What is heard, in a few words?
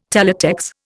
"Teletex", Indian